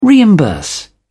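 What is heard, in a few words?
"reimburse", British English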